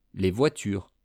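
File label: "les voitures", French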